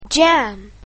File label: jam, english basic sounds; grade1